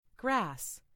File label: "grass", en; FoodChain